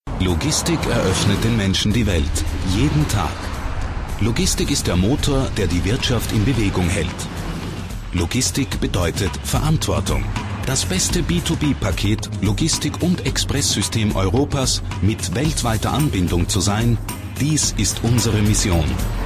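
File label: Austrian German Commercial; voice demos; GoLocalise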